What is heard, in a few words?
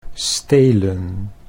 "stelen", Dutch